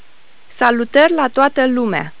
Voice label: romanian; Golden Record